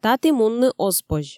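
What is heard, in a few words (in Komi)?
"таті мунны оз позь", Komi, female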